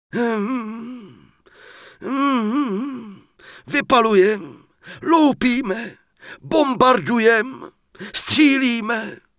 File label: Mortar Team Pissed; wowpreklad; czwow